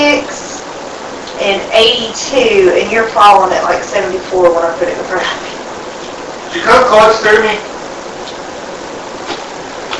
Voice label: Unexplained Female Voice whispering (Nothing) Amplified